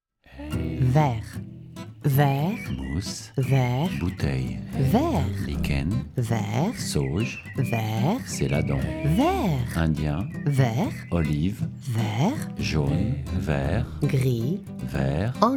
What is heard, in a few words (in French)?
francais et arabe; Vert